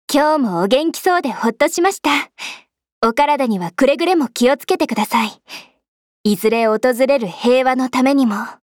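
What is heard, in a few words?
voice Japanese welcome; Daphne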